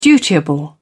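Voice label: "dutiable", British English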